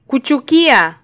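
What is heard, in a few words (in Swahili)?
"kuchukia", Swahili, Kenya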